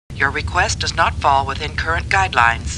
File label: Star Trek Computer Voice; StarTrekLCAR